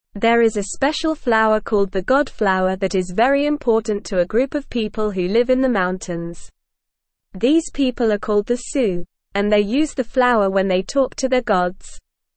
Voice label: English Newsroom Beginner NORMAL Reading; The Special God Flower Help Us Save It